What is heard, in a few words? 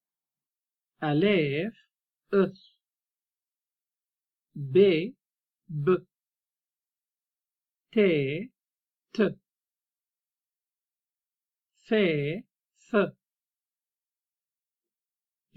e letter sound, spoken written arabic; a complete course in spoken written arabic msa